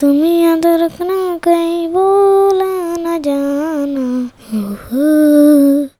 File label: CHILD VX, Indian Traditions